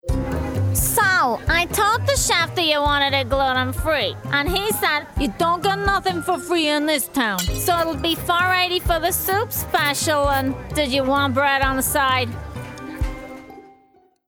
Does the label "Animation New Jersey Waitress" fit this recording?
Yes